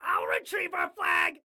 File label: Flag I Retrieve, Excited Guy